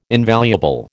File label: "invaluable", American